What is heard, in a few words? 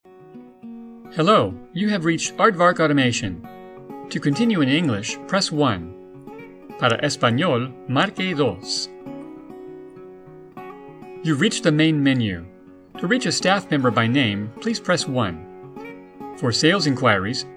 IVR